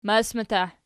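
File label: "masmatah" nominative, Archi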